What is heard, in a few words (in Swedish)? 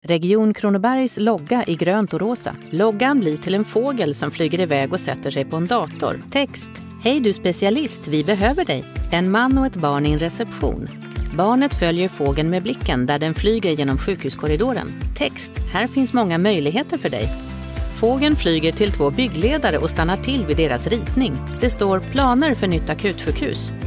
syntolkning film tillganglighet tydlig